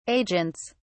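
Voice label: "agents", British English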